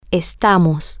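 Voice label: Spanish, we are temporary, female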